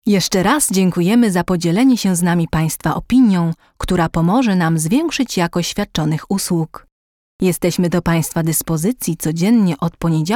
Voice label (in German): polnisch telefon; sprecher